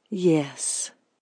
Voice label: "Yes" Female, Exclamation